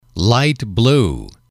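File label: "light blue", English, male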